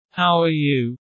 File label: low; Animal friends